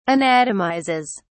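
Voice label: "anatomizes", English, British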